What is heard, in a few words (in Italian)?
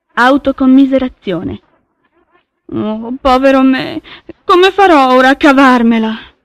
autocommiserazione